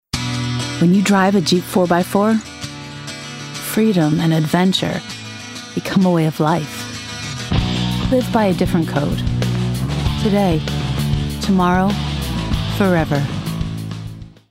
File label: COMMERCIAL; Jeep